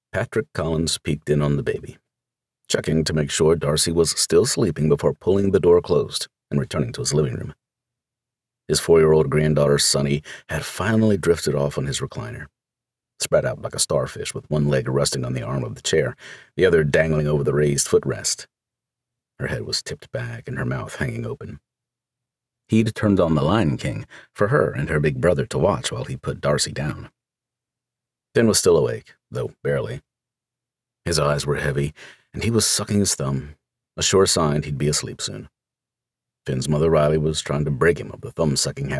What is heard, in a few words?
Male; Wildside; Genre: Contemporary Romance, Menage, Friends to Lovers, Bisexual; Series: Wilder Irish, Book 9